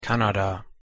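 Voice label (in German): "kanada", German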